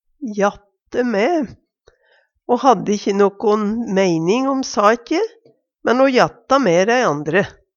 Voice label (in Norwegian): jatte mae, Numedalsmål